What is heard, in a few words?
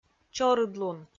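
"чорыдлун", Komi-Zyrian, female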